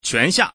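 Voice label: male all in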